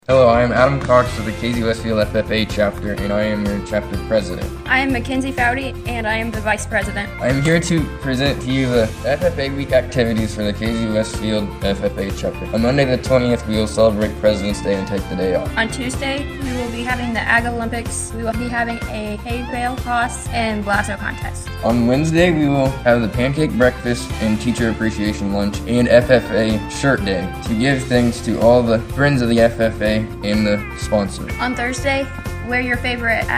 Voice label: male female; Casey FFA Week